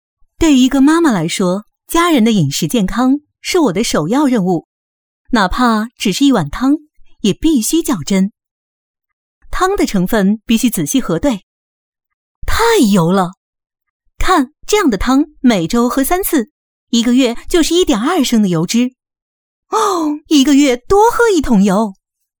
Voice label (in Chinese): 女 品牌广告 压力锅; 美的; 祛脂的压力锅